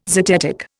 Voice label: "zetetic", Indian

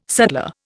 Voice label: "sutler", Indian

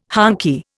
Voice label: "honky", Indian

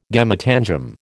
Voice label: "gametangium", American

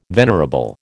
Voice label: "venerable", American

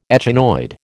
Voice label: "echinoid", American

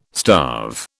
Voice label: "starve", British

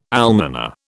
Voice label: "almoner", British

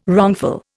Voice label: "wrongful", Indian

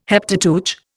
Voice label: "Heptateuch", Indian

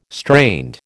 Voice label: "strained", American

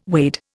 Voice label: "wade", Indian

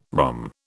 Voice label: "rhomb", British

